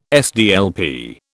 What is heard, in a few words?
"SDLP", British